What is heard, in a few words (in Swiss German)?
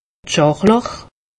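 "scharlach", Alsatian; medecine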